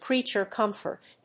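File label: "creature comfort", English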